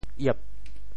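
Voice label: "iep4", Teochew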